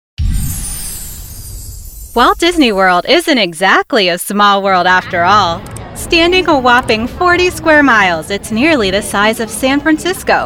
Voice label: NARRATION